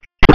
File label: Police Dispatch